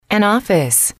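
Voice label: "an office", female, English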